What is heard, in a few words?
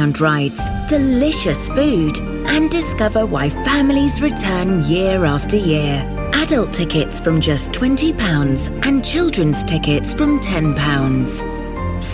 sparkly magical read commercials